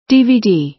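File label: "dvd", American English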